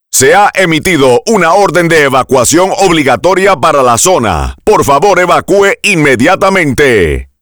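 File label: Mandatory Evacuation Spanish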